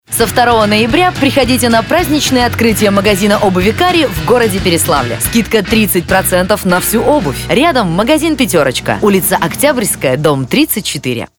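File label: radio advert; Pereslavl Zalesskij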